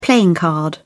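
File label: "playing card", British English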